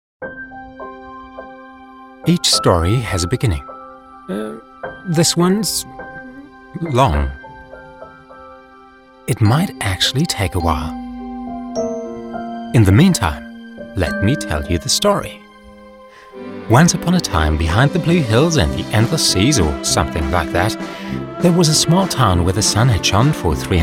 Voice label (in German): Hörbuch englisch